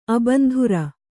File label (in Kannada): "ಅಬಂಧುರ", Kannada